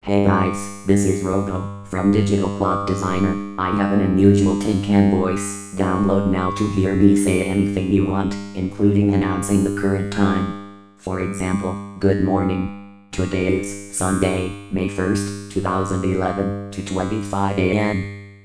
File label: robo